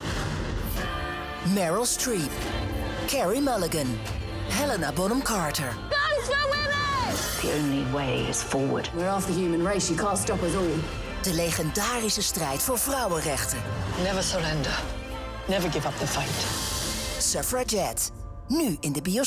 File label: Older TV; Suffragette